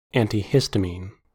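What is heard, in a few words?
"antihistamine", American English; pronunciations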